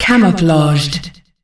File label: "Camouflaged", announcer female; Unreal Tournament; ut2004